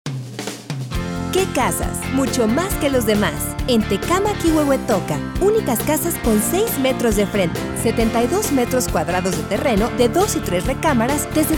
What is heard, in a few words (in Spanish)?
perifoneo; KECASAS